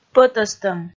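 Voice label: "пӧтӧстӧм", Komi, female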